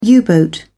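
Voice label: "u-boat", British English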